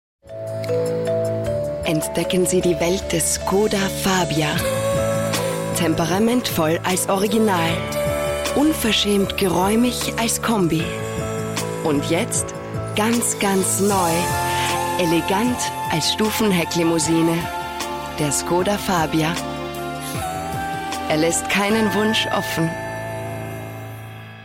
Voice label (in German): Frau OFF